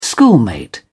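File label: "schoolmate", British English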